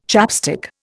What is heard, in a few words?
"chapstick", Indian